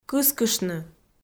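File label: "кыскыштны", Komi, female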